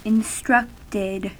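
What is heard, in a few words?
"instructed", US English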